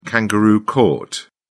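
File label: "kangaroo court", British English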